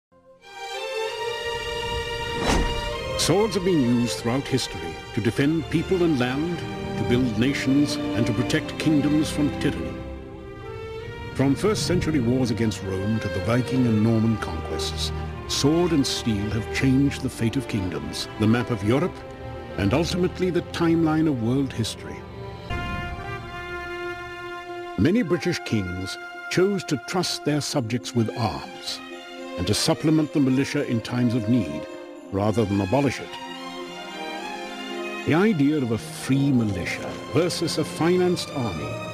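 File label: narration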